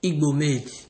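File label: "igbomade", Nigerian Pidgin, male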